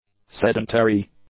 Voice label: "sedentary", English; adjectives

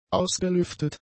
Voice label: "ausgelüftet", German; adjectives

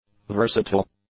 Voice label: "versatile", English; adjectives

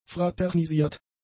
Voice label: "fraternisiert", German; adjectives

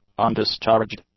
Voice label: "undischarged", English; adjectives

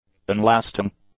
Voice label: "unlasting", English; adjectives

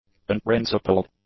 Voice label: "unprincipled", english; adjectives

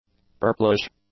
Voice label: "purplish", english; adjectives

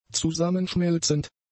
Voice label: "zusammenschmelzend", German; adjectives

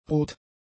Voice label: "rot", German; adjectives

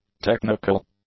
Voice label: "technical", English; adjectives

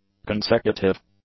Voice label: "consecutive", English; adjectives

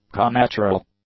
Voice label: "connatural", English; adjectives

